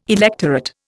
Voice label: "electorate", Indian

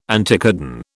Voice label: "anticodon", British

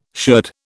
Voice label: "sherd", British

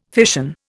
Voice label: "fission", Indian

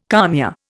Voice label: "kalmia", Indian

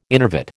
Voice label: "innervate", American

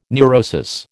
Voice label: "neurosis", American

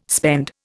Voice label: "spend", Indian

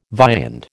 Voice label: "viand", American

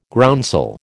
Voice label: "groundsel", American

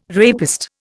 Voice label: "rapist", Indian

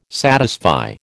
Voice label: "satisfy", American

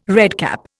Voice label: "redcap", Indian

